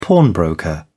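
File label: "pawnbroker", British English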